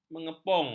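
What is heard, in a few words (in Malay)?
"mengepung", Malay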